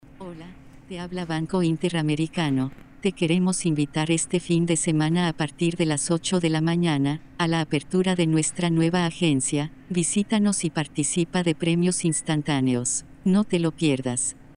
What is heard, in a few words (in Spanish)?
llamadas IVR